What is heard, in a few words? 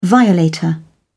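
"violator", English, British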